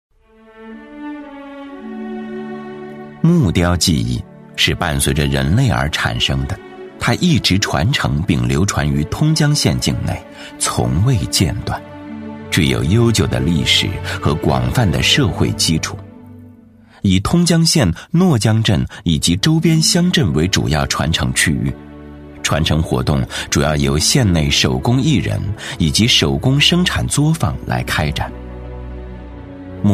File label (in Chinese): 男 纪录片 历史讲解; 木雕; 男83-纪录片《木雕》-历史讲解